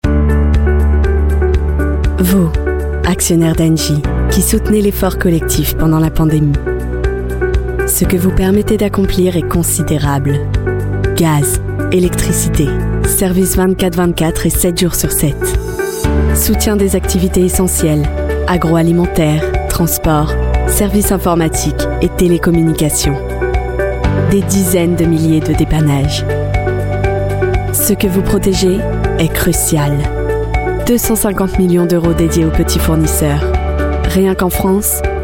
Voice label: french voice over female narrator